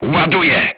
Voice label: voice message reloading, polish

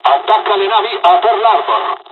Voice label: ships, dialogs italian; hon jpn second wave; War Thunder

